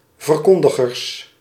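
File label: "verkondigers", Dutch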